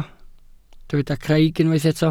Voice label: "craig", Welsh